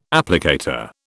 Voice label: "applicator", British